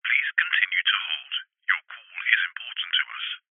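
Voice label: human voice call centre telephone recording male British says please continue to hold; uk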